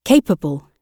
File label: capable, gb; tieng anh 12